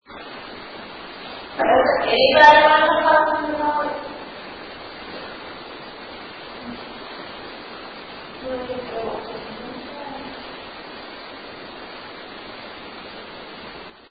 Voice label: museum noise voice